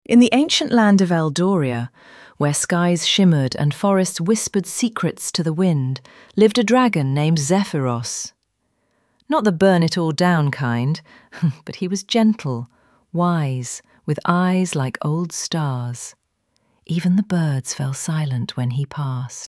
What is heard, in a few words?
woman warm voice